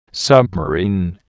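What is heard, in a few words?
"submarine", English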